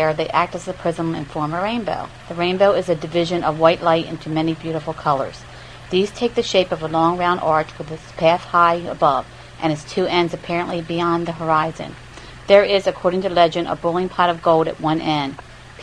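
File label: maryland, International Dialects of English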